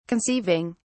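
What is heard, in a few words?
"conceiving", British English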